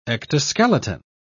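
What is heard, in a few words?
"ectoskeleton", British English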